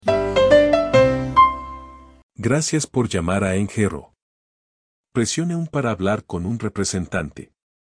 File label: spanish, phone mexican